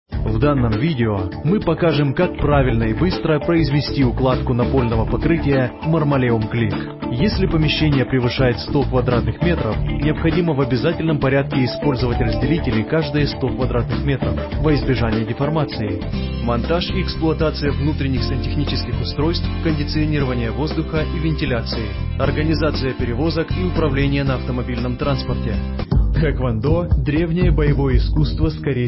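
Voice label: Russian, Narrative; GoLocalise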